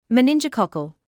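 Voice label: "meningococcal" English, British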